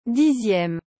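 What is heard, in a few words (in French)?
"dixieme", French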